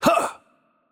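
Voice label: German, attack light; de DE; VO; bloom minigame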